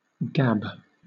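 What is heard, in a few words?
"gab", British English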